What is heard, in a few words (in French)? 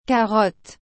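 "carottes", French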